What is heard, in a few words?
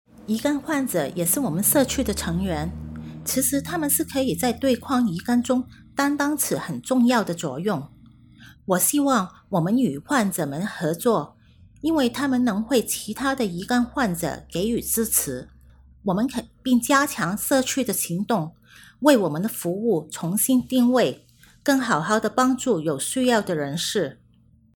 Chinese; hepatitis